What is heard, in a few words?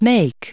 "make", us